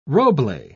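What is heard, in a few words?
"roble", British English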